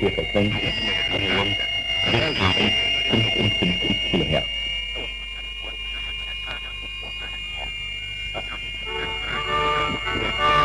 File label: radio prag; 1968